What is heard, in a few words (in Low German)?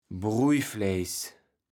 "Broijfläjss", platt